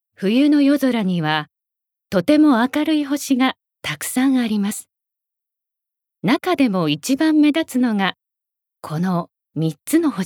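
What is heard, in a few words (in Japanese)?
NA, 声優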